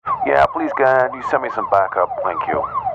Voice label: emergency police radio male officer in car sirens yeah can you please send me some back up